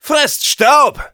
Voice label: battlecry, de; Scout